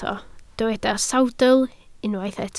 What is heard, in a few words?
"sawdl", Welsh